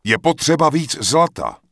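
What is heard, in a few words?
Human Male error not enough money, Czech; wowpreklad; czwow; MaleErrorMessages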